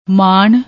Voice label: "maan", Punjabi

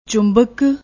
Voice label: "chumbak", Punjabi

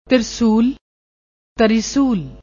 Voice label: "trishul", Punjabi; ਤ੍ਰਿਸੂਲ

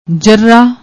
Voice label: "jarrah", Punjabi

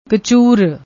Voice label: "kchoor", Punjabi; ਕਚੂਰ

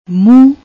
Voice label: "mooh", Punjabi

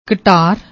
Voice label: "kataar", Punjabi; ਕਟਾਰ

